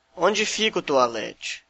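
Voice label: toilet, Brazilian Portuguese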